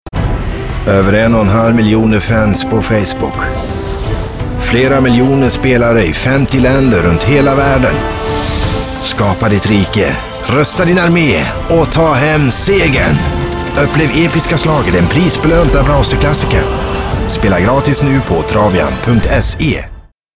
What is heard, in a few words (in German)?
se werbung, schwedisch